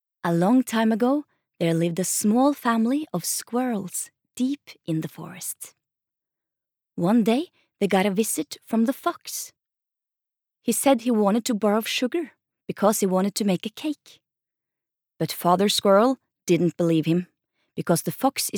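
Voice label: Norwegian english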